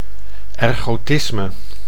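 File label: "ergotisme", Dutch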